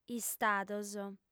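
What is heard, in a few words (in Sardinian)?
"istados", Sardinian, female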